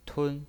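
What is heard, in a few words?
"tun", pinyin voice, first tone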